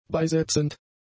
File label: "beisetzend", German; adjectives